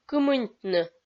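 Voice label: "кымыньтны", Komi, female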